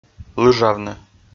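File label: "лыжавны", Komi, male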